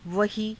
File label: "vahii", Hindi